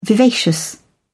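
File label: "vivacious", British English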